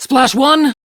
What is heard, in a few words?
Crew Gun Kill Fighter, Steel Meridian Female; Dialog; en; Warframe; RailjackCrew